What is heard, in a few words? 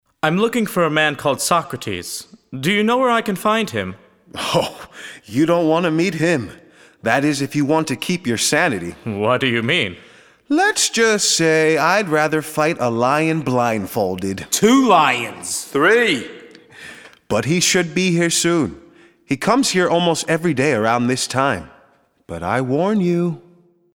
Dialog